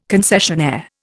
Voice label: "concessionaire", Indian

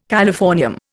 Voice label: "californium", Indian

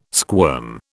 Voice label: "squirm", British

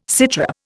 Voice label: "sitrep", Indian

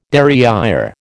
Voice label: "derriÊre", American

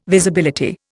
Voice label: "Visibility", Indian

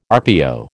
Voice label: "RPO", American

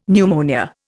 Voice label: "pneumonia", Indian; Dictionary

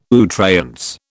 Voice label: "à outrance", British

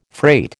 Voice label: "freight" American

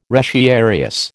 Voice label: "retiarius", American

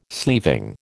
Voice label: "sleeving", American

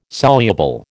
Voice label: "soluble", American

